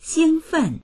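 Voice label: "xing1fen4", Chinese; 兴奋; 興奮する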